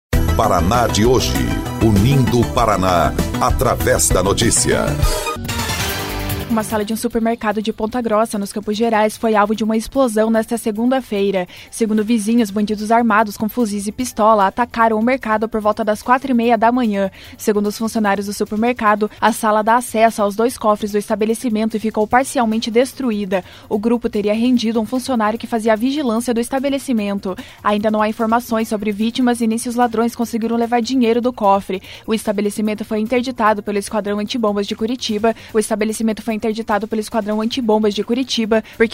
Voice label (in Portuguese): BOLETIM; Supermercado de Ponta Grossa é alvo de criminosos armados com fuzis e pistolas